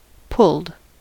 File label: "pulled", en-us